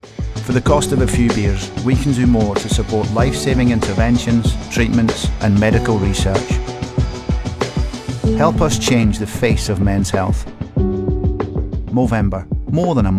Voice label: UK; Movember MORE

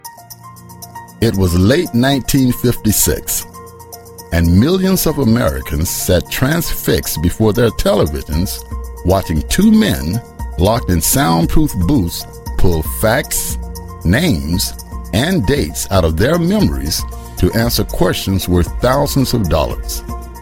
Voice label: NARRATION TV

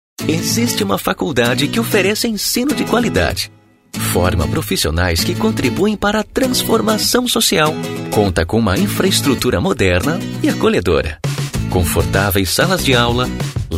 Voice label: Spot Style; Young University

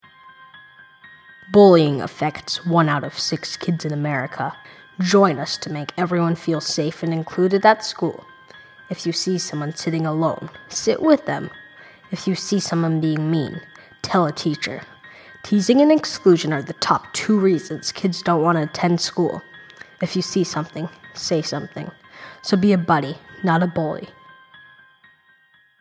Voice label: bully PSA

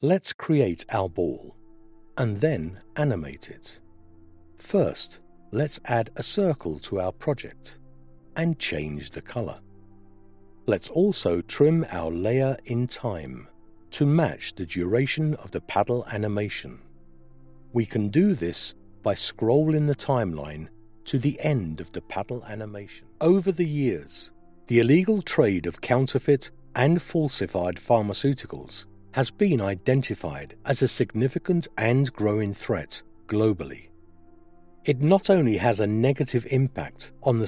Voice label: Elearning music

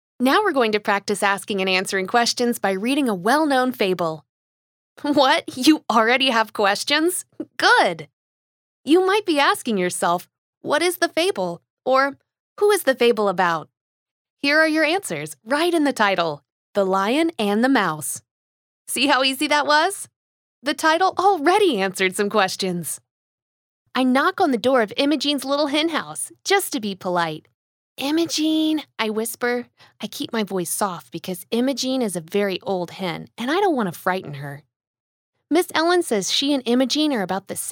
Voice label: E-Learning Narration

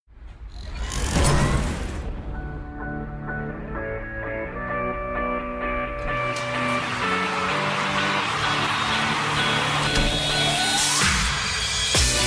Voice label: online ad, VO; Waku Waku

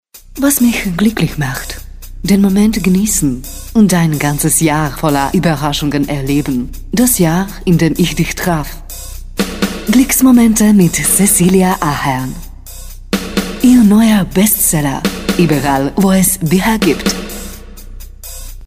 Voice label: German TV promo

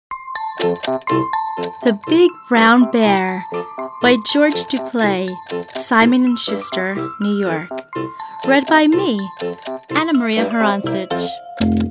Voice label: Childrens Audio Book; Big Brown Bear

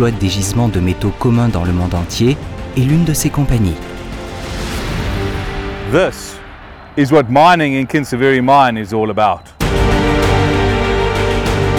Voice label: DOCU CONGO

